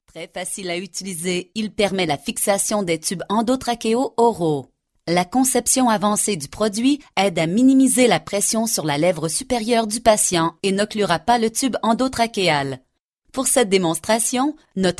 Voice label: French Female